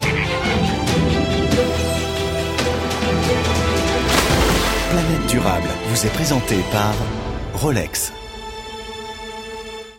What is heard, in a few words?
Billboard; Rolex; National Géographic; Planète Durable